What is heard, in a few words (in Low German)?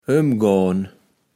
"ömgohn", kaevels platt